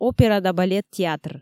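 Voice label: "опера да балет театр", Komi, female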